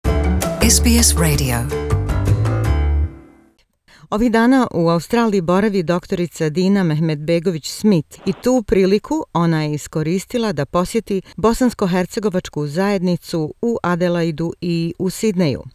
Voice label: bosnian; Second language learning - a recipe for brain development and the fight against dementia; SBS Bosnian

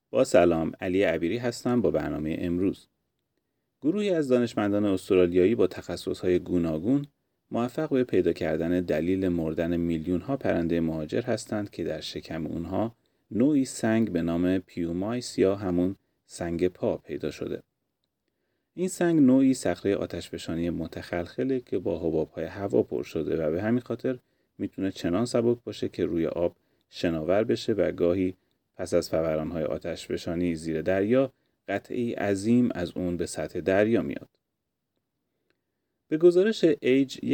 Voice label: persian; راز مرگ میلیون ها پرنده استرالیایی پس از هشت سال کشف شد; SBS Persian

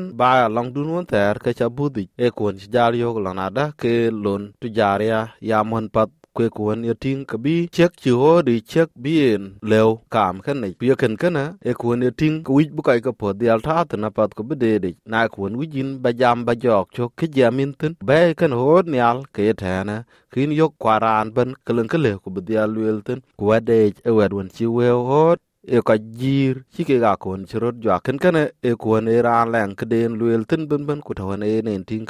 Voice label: Dinka; Liik ɣöt ye jiir yic; SBS Dinka

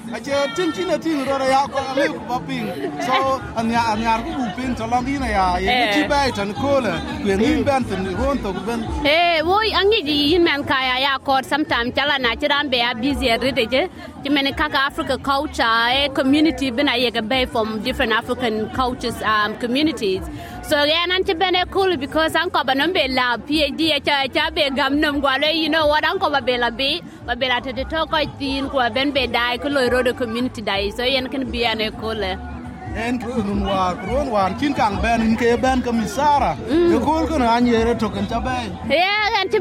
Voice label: Dinka; SBS Dinka

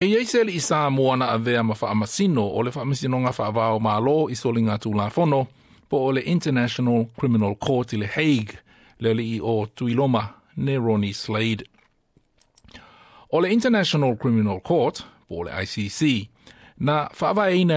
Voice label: samoan; Tuua e Aferika i Saute le Faamasinoga Faavaomalo le ICC; SBS Samoan

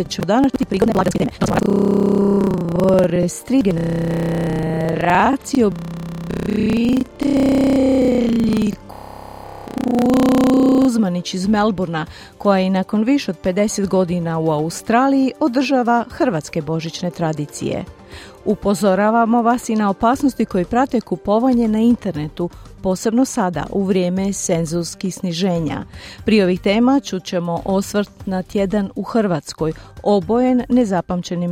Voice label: croatian, Program SBS-a na hrvatskom jeziku

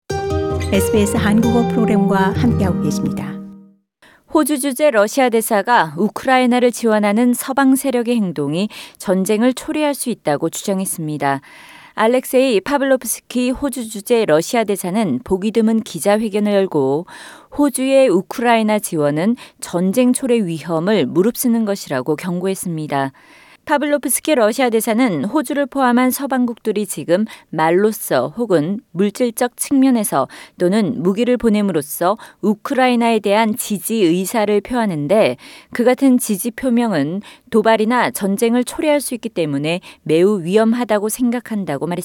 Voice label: korean; 호주 주재 러시아 대사, 서방국의 우크라이나 군사지원…전쟁 위험 초래; SBS Korean

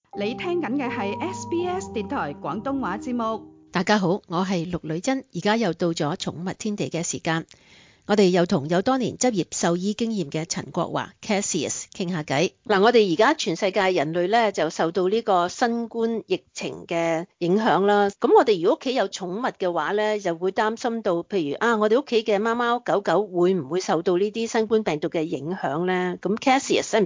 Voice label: cantonese; 動物園「居民」都要打新冠疫苗; SBS Chinese; 寵物天地

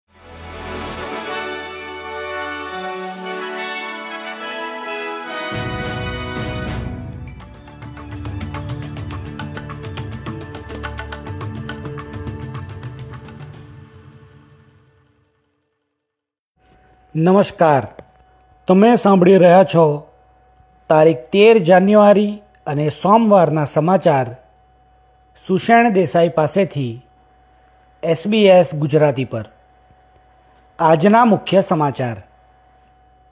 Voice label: gujarati newsflash; SBS Gujarati Australian update: 13 January 2025

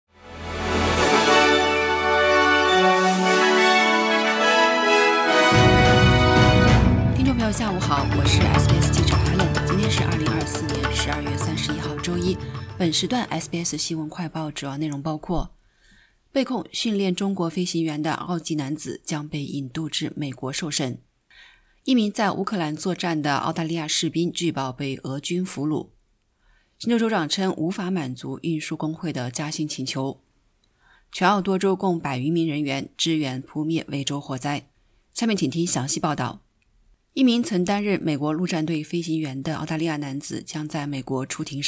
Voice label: mandarin newsflash; 被控训练中国飞行员的澳籍男子将被引渡至美国受审; SBS Chinese; SBS新闻快报

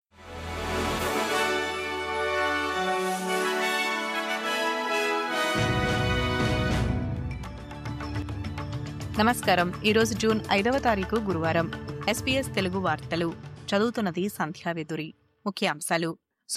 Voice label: telugu news; పోలీసుల అదుపులో అబోరిజినల్ యువకుడి మృతి – డార్విన్‌ పార్లమెంట్ ఎదుట ర్యాలీలు..; SBS Telugu

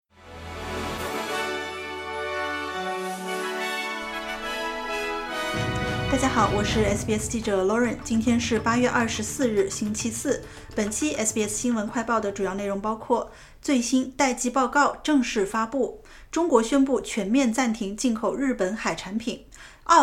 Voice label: mandarin news flash; SBS新闻快报; 福岛核废水开始排海 中国暂停进口日本海产品; SBS Chinese; 2023年8月24日：中国宣布全面暂停进口日本海产品

